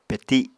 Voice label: "peti", Apatani